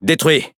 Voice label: Speech Pilots Fighter Destroy, FR; World Of Warships

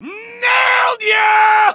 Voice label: taunt nailed ya high

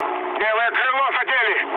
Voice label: left wing, mood med, speech ru; War Thunder

